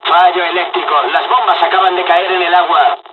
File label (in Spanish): dialog, dialogs spanish; us midway; War Thunder